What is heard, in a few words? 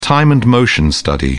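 "time-and-motion study", British English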